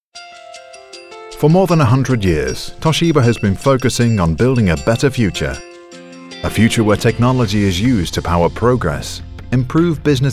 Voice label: Corporate Promo

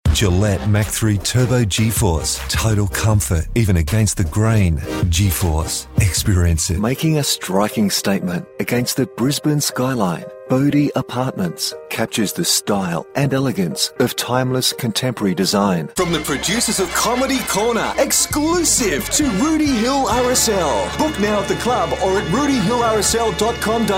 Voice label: Radio; Gold Radio

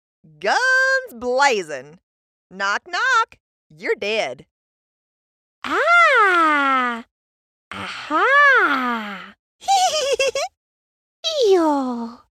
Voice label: Character Video Game

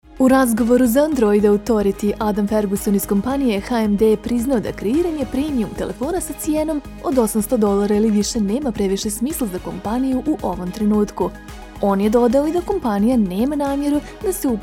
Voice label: Bosnian Narration Mix

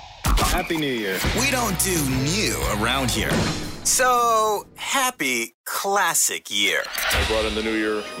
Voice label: Rock Radio Imaging

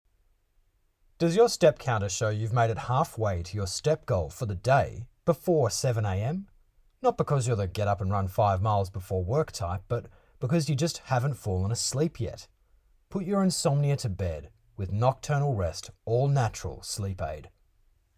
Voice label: advert

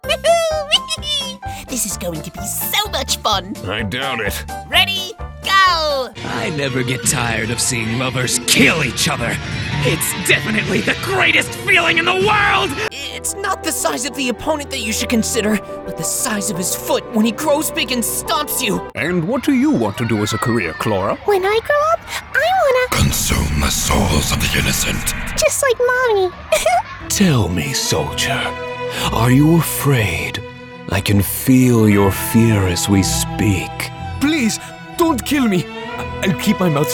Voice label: Character

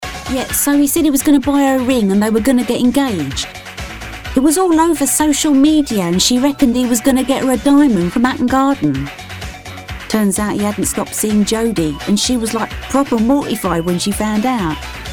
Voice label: Lovely Voice Character Voice

